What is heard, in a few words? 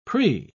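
"pre-", English, British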